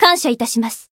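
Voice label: healed, Japanese; niike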